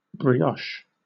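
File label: "brioche", British English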